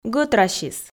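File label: "гӧтрасис", Komi, female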